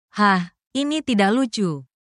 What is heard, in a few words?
indonesian phrases